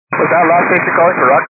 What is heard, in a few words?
122 725MHz, scanner radio